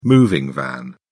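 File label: "moving van", British English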